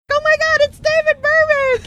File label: saying oh my god it's high pitched